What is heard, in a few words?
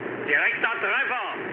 bomb success, mood med, speech ge; War Thunder